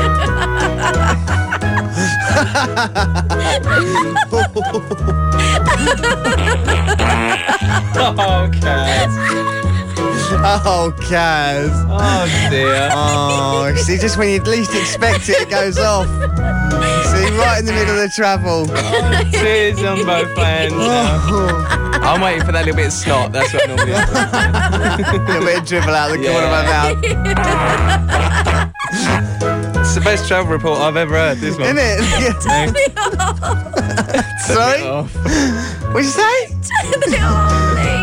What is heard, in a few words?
laugh alarm; kiss105